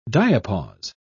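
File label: "diapause", British English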